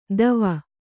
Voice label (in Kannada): "ದವ", Kannada